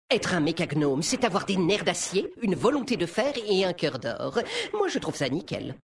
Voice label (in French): VF Mecagnome Homme Blague; Nerf Acier